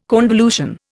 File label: "convolution", Indian